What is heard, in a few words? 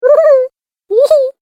Cartoon Game Voice Happy Sounds; Cartoon Voice Sound Effects